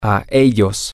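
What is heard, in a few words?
to them, Spanish, male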